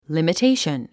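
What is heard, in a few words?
"limitation", English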